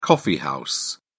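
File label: "coffee house", English, British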